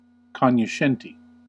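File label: "cognoscente", US English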